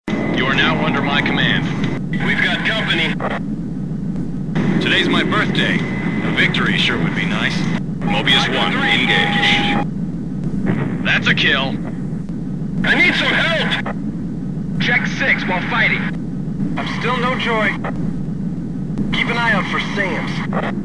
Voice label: Radio; Ace Combat

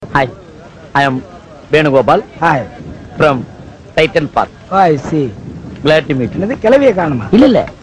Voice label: Tamil Comedy

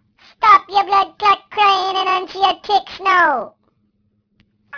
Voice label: Jamaican Chipmunk

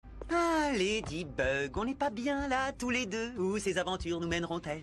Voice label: Flirting; Chat Noir

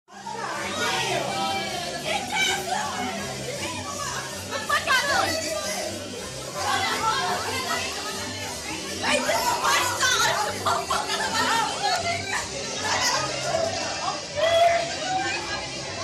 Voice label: Chatter

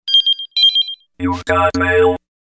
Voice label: you got mail alien

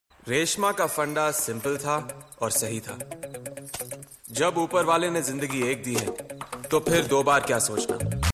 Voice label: Dirty Dialogue

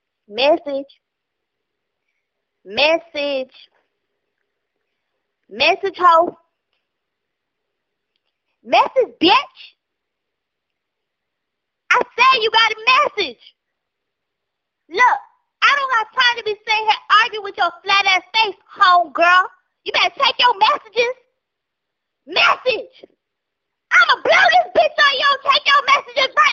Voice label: Drunk Girl

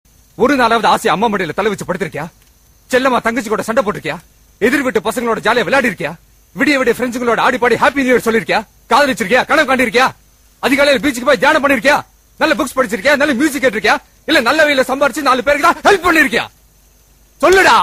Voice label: Serious Dialog; Vijay

